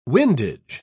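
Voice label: "windage", British English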